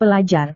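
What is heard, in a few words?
"pelajar", Indonesian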